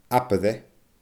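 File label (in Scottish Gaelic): "abair e", Scottish Gaelic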